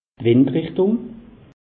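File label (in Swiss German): "windrichtung", Alsatian; meteo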